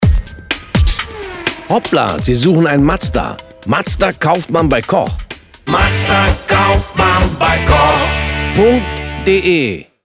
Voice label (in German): Radiospot; Mazda